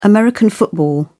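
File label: "american football", English, British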